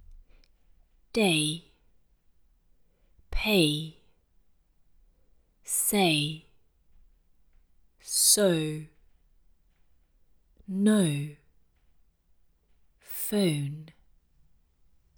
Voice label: Arabic; Most Common English Pronunciation Errors made by Arabic Speakers